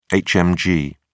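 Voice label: "hmg", British English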